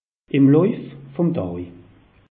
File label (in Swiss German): "lauf vum daj", alsace; meteo